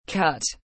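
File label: "Cut", English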